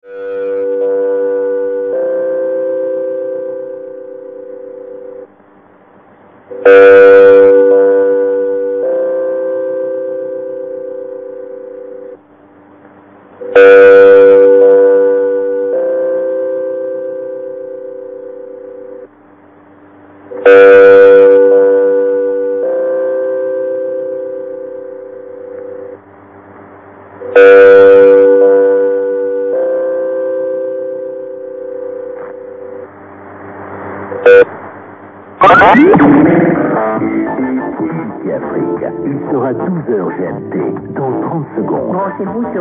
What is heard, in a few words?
17780 KHz french; BBC; Woofferton; UK